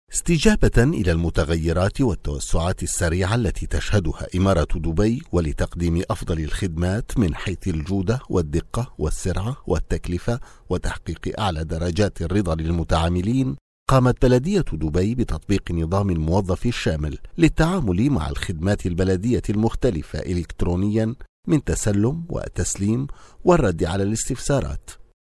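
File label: arabic syrian male narration; voice demo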